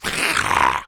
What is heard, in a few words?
Zombie